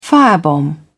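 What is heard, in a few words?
"firebomb", British English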